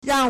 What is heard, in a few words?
"rang4", Chinese